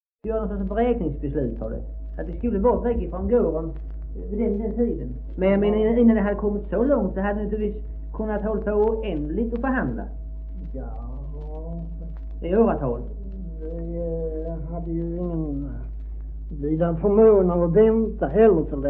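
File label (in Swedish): Maglehem Skåne, dialekter; Folkminnen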